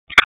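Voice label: Police Dispatch; Wake Forest; wake p25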